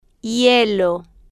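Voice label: "hielo", slow, female, Spanish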